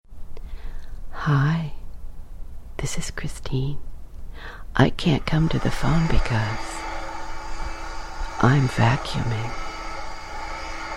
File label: voicemail